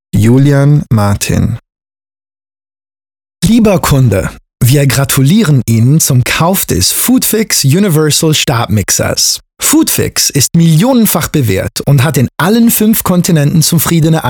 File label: dt infomercial